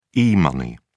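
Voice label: "e money", British English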